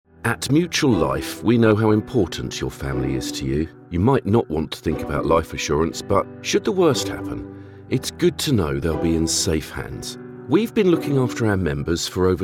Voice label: COMMERCIAL